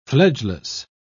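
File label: "fledgeless", British English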